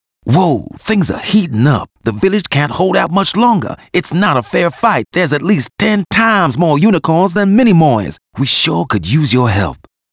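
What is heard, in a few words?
aim British; arthur and the revenge of maltazard; Wii; Aim Uk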